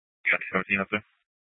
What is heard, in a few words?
Superstition Dispatch, Mesa PD